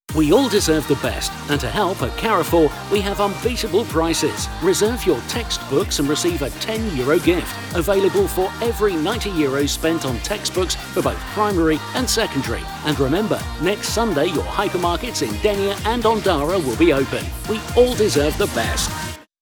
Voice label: Ad; Carrefour